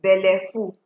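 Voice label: "belleful", Nigerian Pidgin, female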